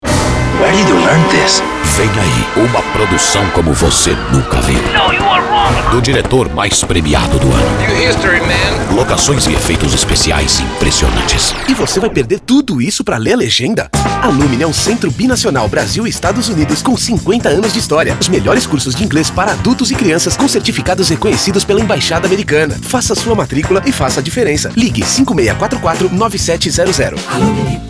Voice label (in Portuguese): Spot